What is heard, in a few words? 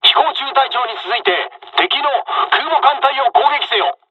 flight, dialogs japanese; War Thunder